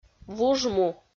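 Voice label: "вужму", Komi, female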